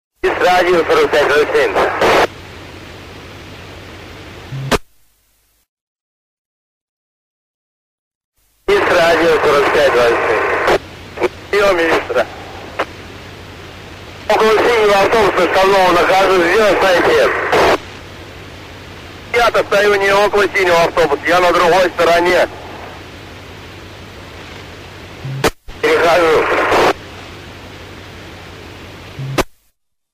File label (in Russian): разговоры по рации сотрудников полиции на русском языке